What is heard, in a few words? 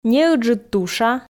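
"неыджыд туша", Komi, female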